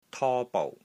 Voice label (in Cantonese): "TO1 BOU6", Wing Chun; Dragging Step; Wing Chun Elements Glossary